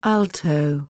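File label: "alto", British, female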